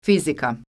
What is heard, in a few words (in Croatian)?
"fizika", croatian